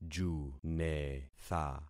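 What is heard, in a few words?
"junetha", concatenated syllables; Affixing experiment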